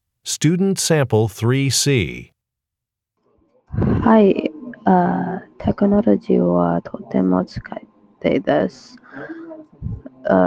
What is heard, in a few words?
japanese conversation; Conversation 3 Sample C